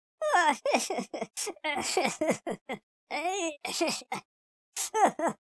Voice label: angry, speech